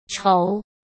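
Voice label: "稠", Mandarin Chinese; chinese-flashcards; hsk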